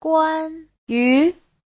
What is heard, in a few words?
"guan1 yu2", chinese; 关于; (giới từ) về; Giáo trình chuẩn HSK3